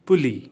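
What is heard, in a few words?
"pulley", English; simple machine new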